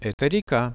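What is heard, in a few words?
"this is a river", Russian; Это река; nature; priroda; RUSSIAN VISUAL DICTIONARY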